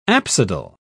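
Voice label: "apsidal", British English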